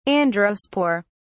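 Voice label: "androspore", British English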